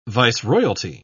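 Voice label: "viceroyalty", British English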